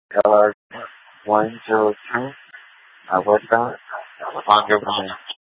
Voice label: Valley Metro Public Transit System Light Rail Security, scanner